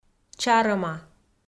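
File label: "чарӧма", Komi, female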